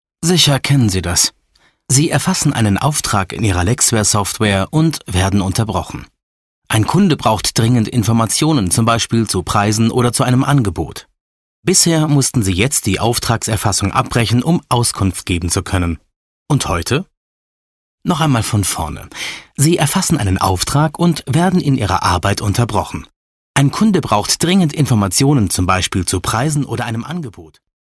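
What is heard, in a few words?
DE M elearning, German, male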